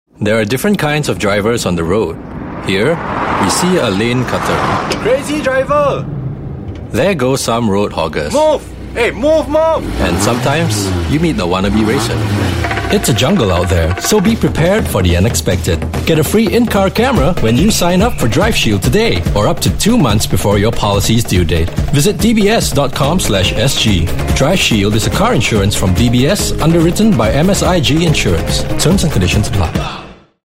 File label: Asian English; Drive Shield